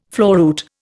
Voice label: "floruit", Indian